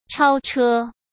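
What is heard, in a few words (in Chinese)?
"超车", Mandarin Chinese; chinese flashcards; hsk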